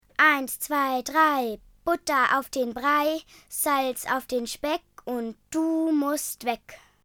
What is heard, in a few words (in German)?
deutsch